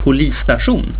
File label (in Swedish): "polissta", swedish; plaatsen